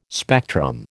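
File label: "spectrum", American English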